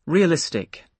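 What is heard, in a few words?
"realistic", British English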